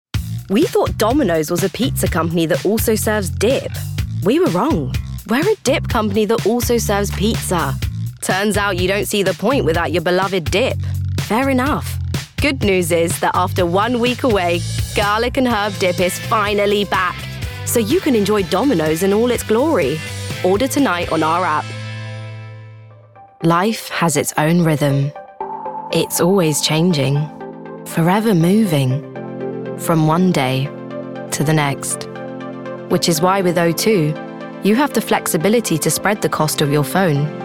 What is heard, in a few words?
commercials; 20s-30s; Female; London